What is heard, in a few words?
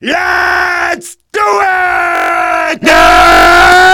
rage demo; missingteam